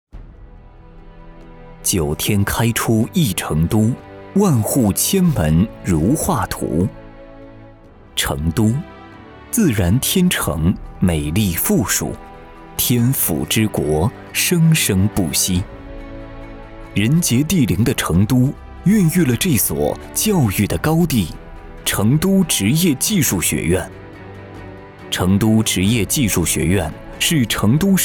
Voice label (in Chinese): 宣传片; 宣传片成都职业学院